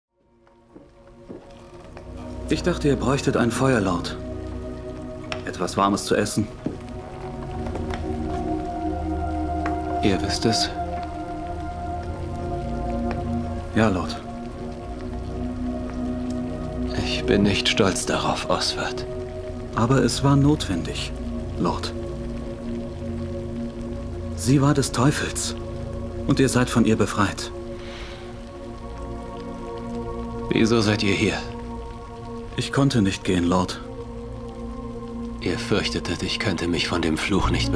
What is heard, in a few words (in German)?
Synchron Real Dialog ruhig emotional erste Stimme